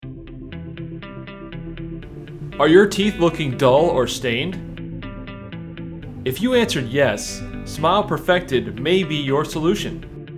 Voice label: On-hold Male Voice